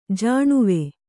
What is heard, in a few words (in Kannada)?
"ಜಾಣುವೆ", Kannada